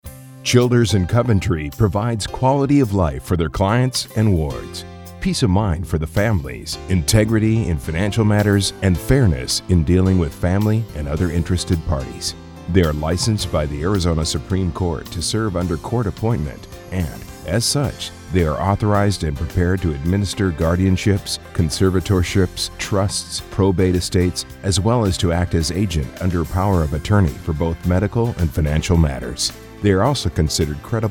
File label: Radio Spot; Childers and Coventry